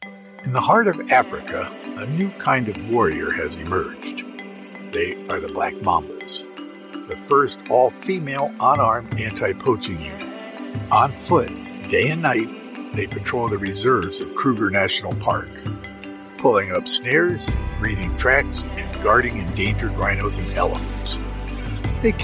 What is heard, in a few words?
Documentary Non-Fiction Narration